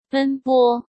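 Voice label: "奔波", Mandarin Chinese; hsk; chinese-flashcards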